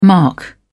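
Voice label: "mark", English, British English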